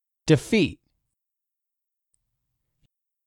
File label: "defeat", English; 3000 words